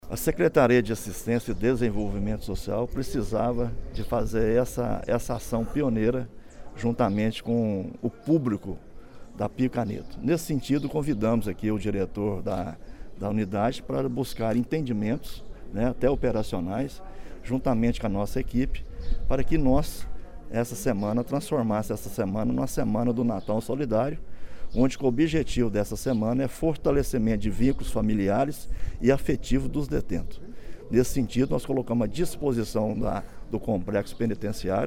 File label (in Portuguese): telefone